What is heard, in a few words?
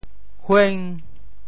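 "hueng5", Teochew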